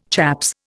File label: "chaps", Indian